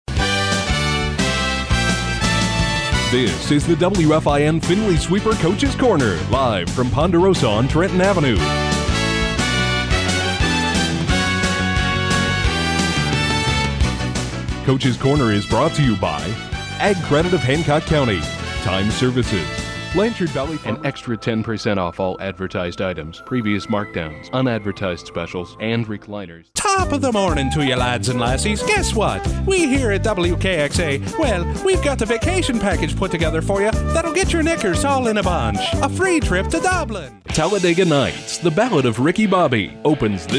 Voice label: Promo Commercial